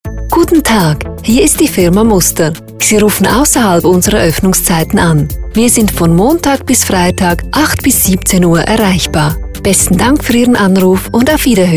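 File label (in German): telefonansagen sprecher Swiss; Native Speaker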